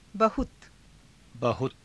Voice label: "bahuta", hindi

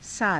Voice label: "saata", hindi

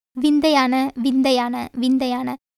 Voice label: Tamil

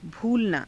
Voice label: "bhuulamaa", hindi

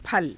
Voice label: "phala", Hindi

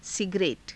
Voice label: "sigare ta", hindi

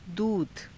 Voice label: "duudha", Hindi

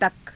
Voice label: "taka", Hindi

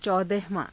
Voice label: "caudahavaa~", Hindi

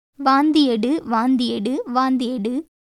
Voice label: Tamil

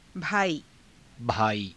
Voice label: "bhaaii", Hindi

